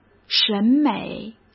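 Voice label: "审美", Mandarin Chinese; chinese flashcards; hsk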